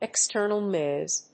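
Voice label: "external noise", English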